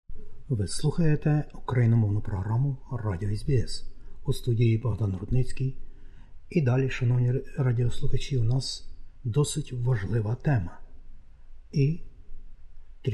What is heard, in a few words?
ukrainian; SBS Ukrainian